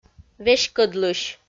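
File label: "веськӧдлысь", Komi, female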